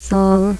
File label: Solfege, Claire's Voice; Opcode Systems